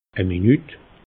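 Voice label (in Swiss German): "minut", Alsatian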